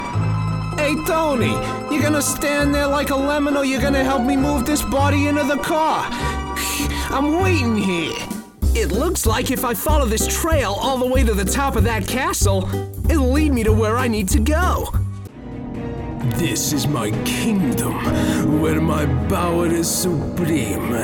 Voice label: animation